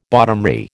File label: "bottomry", American